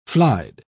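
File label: "flied", British English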